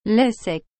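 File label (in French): "lait sec", French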